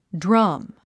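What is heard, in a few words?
"drum", English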